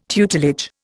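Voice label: "tutelage", Indian English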